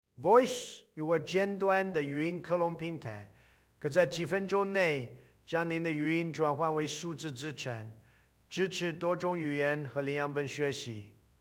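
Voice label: voice Chinese; 懂王中文版; AI 声音克隆平台; Voicv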